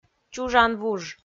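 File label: "чужанвуж", Komi-Zyrian, female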